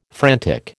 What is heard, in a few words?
"frantic", American